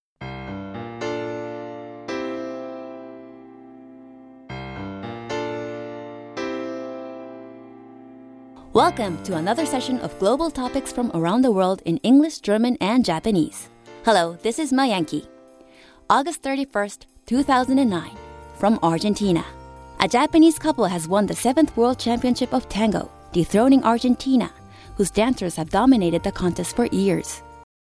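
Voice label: english, bilingual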